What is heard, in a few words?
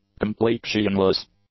"complexionless", English; adjectives